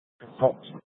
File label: Sheriffs Office, scanner